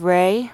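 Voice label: "ray", Nonnative, American English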